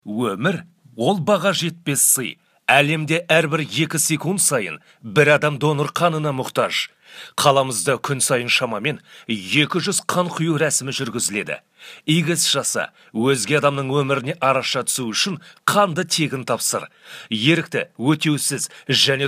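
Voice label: aktivno nastoichivo Kaz, active insistent Kazakh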